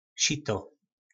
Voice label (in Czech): "Šito", nářečí Po našimu